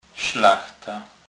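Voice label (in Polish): "slachta", Kurpie dialect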